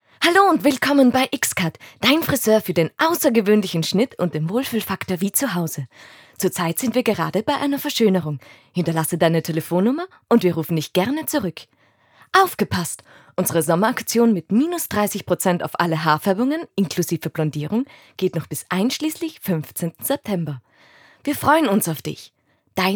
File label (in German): Telefonschleife lebhaft